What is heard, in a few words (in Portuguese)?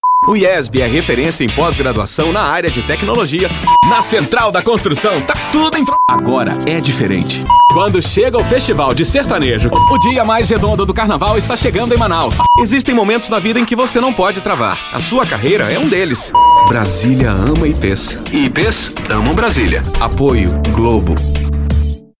Repertório Publicitário